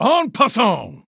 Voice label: Male Chess Piece

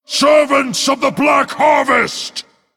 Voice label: Male Demon Form Play

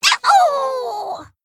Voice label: Kobold Emote Death

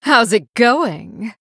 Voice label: Female Blood Elf Greetings

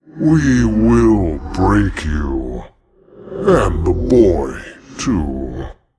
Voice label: Male Demon Idle; Mal Ganis; Uther

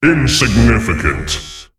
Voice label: Mech Emote Attack

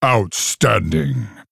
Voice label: Male Orc Wow

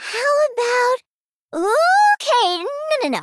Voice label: Female Gnome Hero Thinking; Good Fight

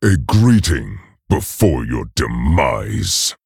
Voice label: Male Tauren Evil Fight Hero Emote Greetings

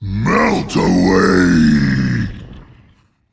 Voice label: Male Elemental Attack

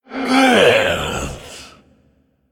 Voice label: Male Inquisitor Death

